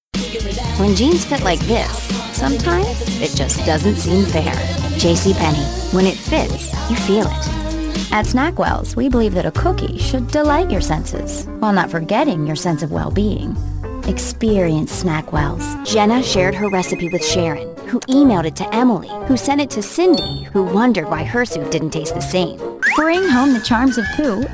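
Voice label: American English commercial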